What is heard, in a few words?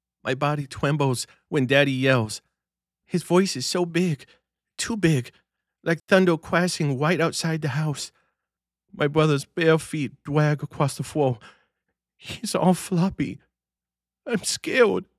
Young Child Trauma, frightened child